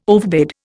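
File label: "overbid", Indian English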